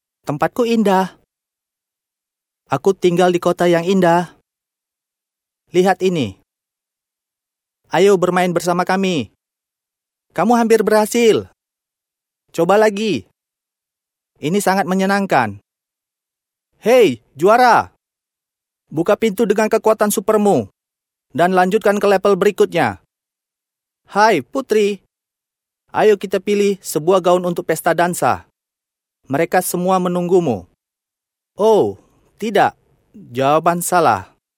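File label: Indonesian male children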